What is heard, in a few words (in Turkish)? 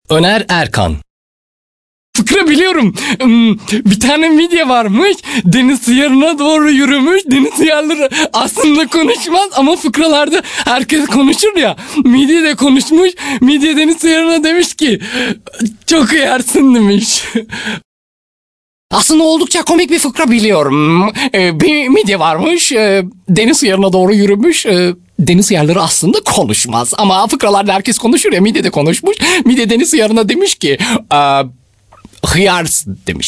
animasyon